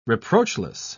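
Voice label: "reproachless", British English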